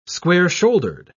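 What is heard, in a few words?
"square-shouldered", British English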